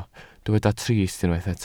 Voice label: "trist", Welsh

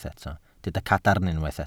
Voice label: "cadarn", Welsh

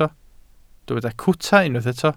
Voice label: "cwta", Welsh

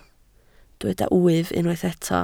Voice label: "wyf", Welsh

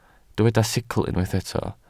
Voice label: "sicl", welsh

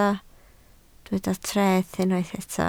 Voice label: "treth", Welsh

